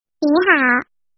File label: nihao hello in chinese